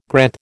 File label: "Granth", American